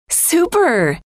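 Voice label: "super", female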